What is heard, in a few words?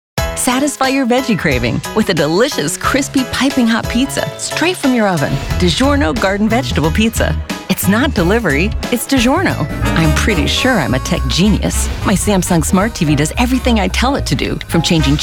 Commercial